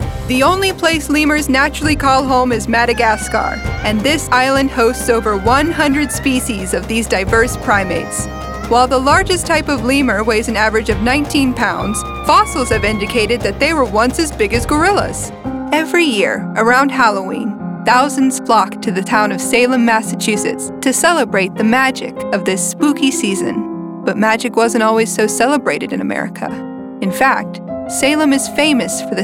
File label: Narration